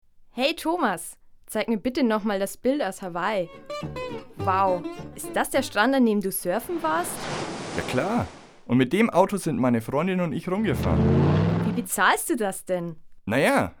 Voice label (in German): Werbung Sparkasse